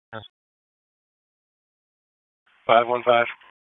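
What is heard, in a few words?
Police Southeast District Dispatch; Raleigh; wake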